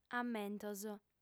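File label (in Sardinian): "ammentos", lingua sarda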